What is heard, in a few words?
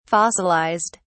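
"fossilised", English, British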